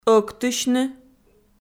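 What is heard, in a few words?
"ӧктысьны", Komi, female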